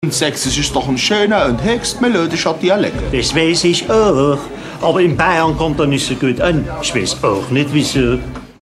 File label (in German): saechsisch